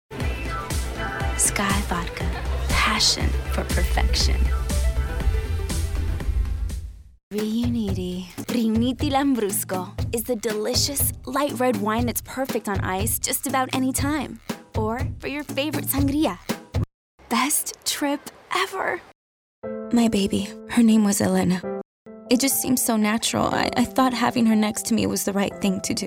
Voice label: commercial female; commercial women

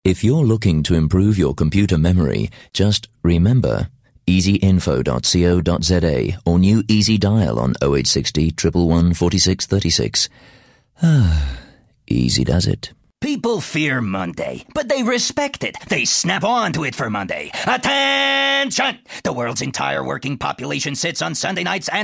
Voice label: accents south african

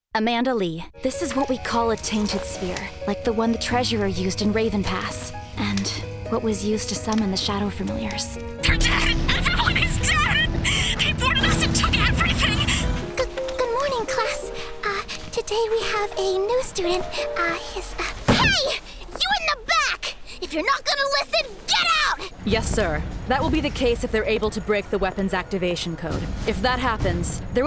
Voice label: animation women characters

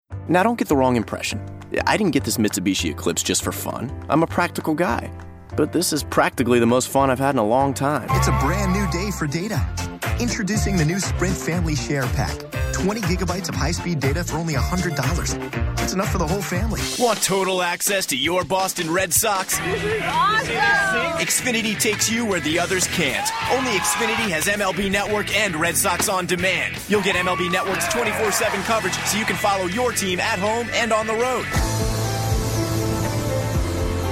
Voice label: commercial, men

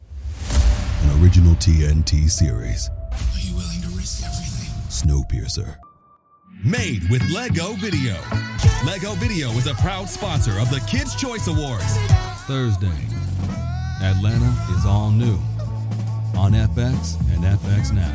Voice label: promo men

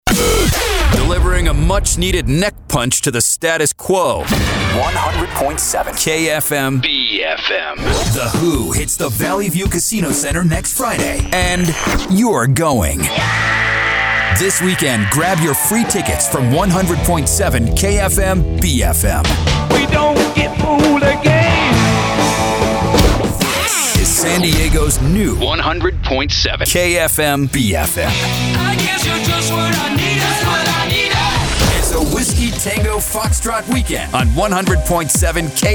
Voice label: radio classic rock, radio imaging

